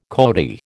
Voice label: "coati", American